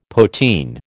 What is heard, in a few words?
"poteen", American